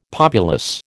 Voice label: "populous", American